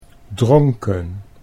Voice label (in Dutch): "dronken", Dutch